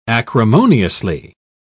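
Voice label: "acrimoniously", British English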